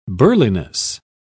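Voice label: "burliness", British English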